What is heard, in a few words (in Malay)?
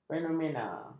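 "fenomena", Malay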